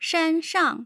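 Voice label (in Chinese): shan1 shang4, Mandarin; tough sounds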